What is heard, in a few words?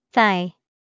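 "thigh", English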